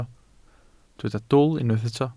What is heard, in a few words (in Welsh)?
"dwl", Welsh